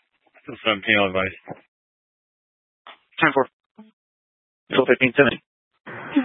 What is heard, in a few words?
Scottsdale Police, Simulcast